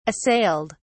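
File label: "assailed", British English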